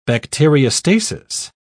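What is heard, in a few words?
"bacteriostasis", British English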